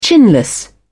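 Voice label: "chinless", English, British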